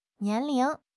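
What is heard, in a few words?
"nian2 ling2", Mandarin Chinese; HSK4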